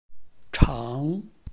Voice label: "chang2", Chinese